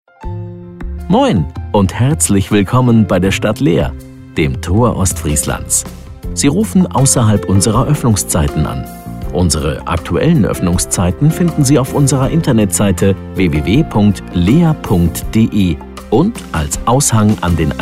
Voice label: Ansage; Stadt Leer

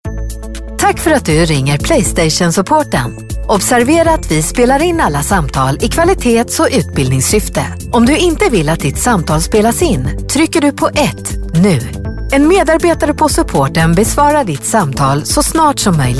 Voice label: telefonansagen sprecher se; Native Speaker

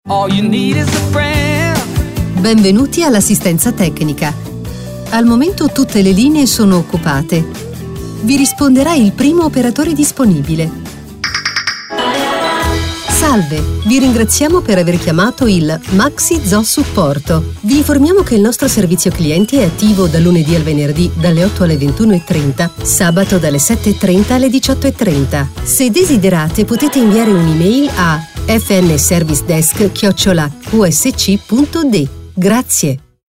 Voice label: telefonansagen sprecher it; Native Speaker

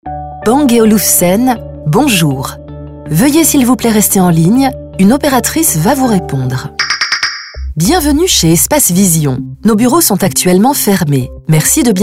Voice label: telefonansagen sprecher fr; Native Speaker

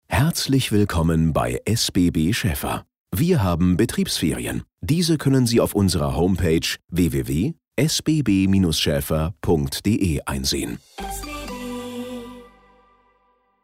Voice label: Ansage

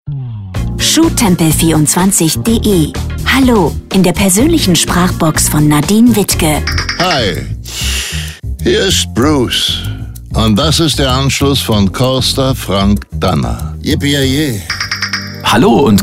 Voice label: telefonansagen stil mobilbox

